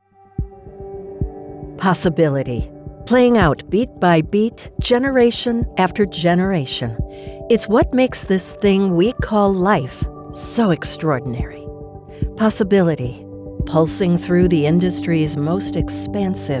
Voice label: Corporate narration